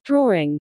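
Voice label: "drawing", English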